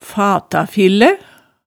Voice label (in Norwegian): "fatafillæ", hemsedalsmaalet